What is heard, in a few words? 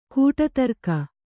"ಕೂಟತರ್ಕ", kannada